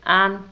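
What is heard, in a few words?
"an" Low German, female, UNUS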